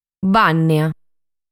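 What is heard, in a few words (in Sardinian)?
"Bànnia", Sardinian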